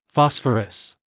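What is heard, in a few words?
"phosphorus", English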